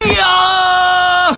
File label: "yeoh", voice